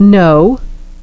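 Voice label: "know", English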